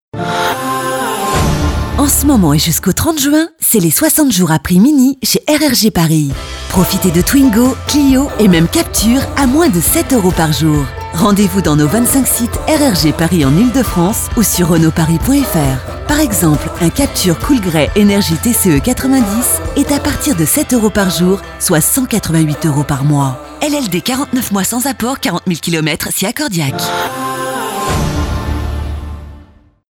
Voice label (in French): Radio; RENAULT